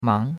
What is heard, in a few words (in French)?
"mang2", chinois; Cours-de-Chinois